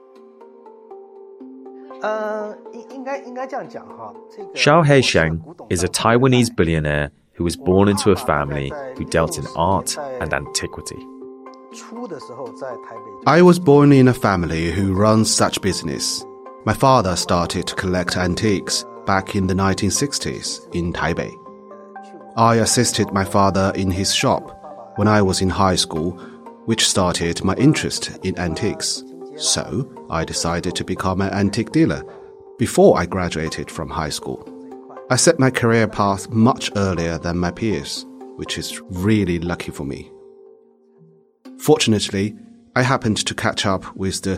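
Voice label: Chinese English, M, Dubbing, accent of china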